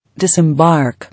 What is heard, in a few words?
"Disembark" English